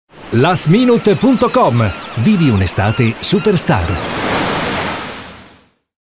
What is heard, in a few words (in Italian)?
spot radio; last minute